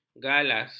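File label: "galas", Malay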